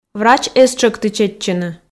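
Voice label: "врач эз тшӧкты чеччыны", Komi, female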